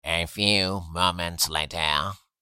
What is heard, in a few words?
A few moments later meme voice male; social media; Meme Sounds